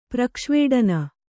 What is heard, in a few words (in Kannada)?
"ಪ್ರಕ್ಷ್ವೇಡನ", Kannada